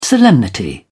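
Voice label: "solemnity", English, British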